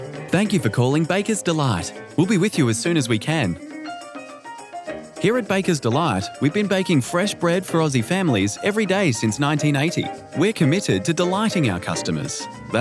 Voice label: On Hold; Bakers Delight